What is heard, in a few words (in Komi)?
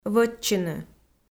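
"вӧтчыны", Komi, female; audio dict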